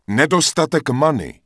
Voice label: Human Male no mana; Error Messages; wowpreklad; czwow; MaleErrorMessages